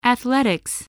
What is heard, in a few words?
"athletics", english